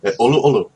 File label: "please", hawaiian